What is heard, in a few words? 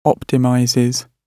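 "optimizes", British English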